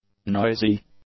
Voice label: "noisy", English; adjectives